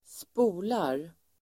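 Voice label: "spolar", Swedish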